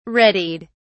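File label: "readied", British English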